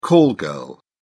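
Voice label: "call-girl", British English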